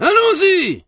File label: french legionaire; HEDZReloaded